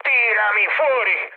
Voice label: bailout, mood med, speech it; War Thunder

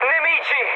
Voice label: enemy detected, mood med, speech it; War Thunder

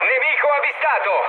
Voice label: enemy detected, mood med, speech it; War Thunder

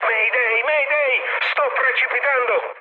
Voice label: aircraft destroyed, mood med, speech it

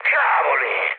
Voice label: trick, mood high, speech it; War Thunder

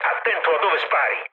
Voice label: player friendly fire, mood low, speech it; War Thunder

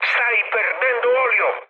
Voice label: damaged oil, mood med, speech it; War Thunder

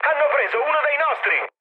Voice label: aircraft destroyed, mood med, speech it

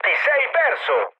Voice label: bomb failure, mood med, speech it; War Thunder

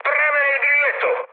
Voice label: attack position, mood high, speech it; War Thunder

